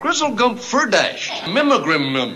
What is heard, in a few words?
grizzlegioat ferdasch mimmigrim, gibbrish; Voice